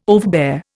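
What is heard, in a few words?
"overbear", Indian English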